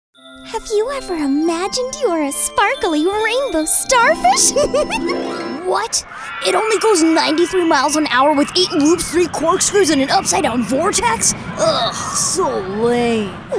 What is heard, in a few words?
Character